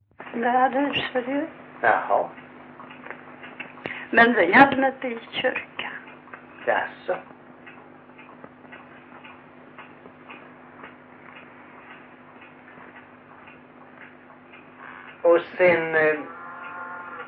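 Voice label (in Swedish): Grimeton Halland, Svenska sagor dialekter; Folkminnen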